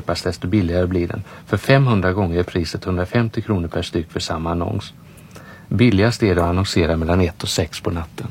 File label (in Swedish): reklam radio; nord